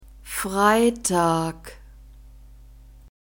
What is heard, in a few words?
"freitag", German; weekdays new words